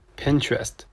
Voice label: Pinterest pronunciation